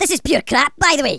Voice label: "BORING", Angry Scots; Worms Armageddon